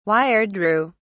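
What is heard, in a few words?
"wiredrew", British English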